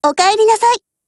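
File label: HELLO, Japanese; GF Alarm; SV98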